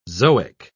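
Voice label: "zoic", British English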